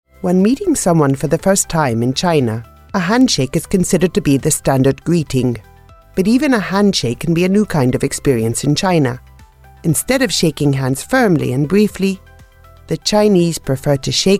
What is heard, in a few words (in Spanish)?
Espanol Espana Femenina Senior